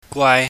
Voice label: "gwaai", chinese

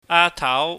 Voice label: "aatau", Cantonese

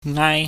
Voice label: "ngaai", Chinese

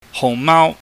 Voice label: "huhngmaau", Cantonese

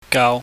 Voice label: "gau", chinese

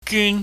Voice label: "gyun", chinese

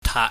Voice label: "taat", pingyam

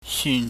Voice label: "syun", Chinese

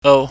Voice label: "ou", pingyam

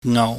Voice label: "ngou", pingyam

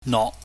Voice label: "nok", Chinese

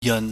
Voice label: "yeun", Chinese

